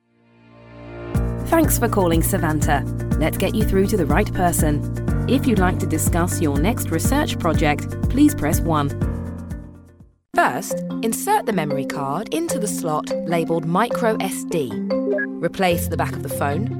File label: Corporate Mix